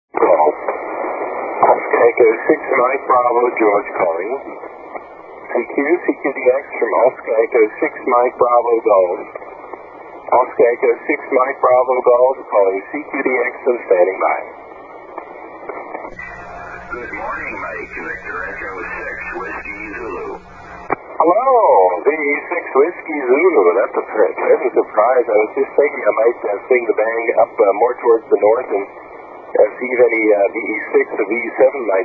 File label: 40m SSB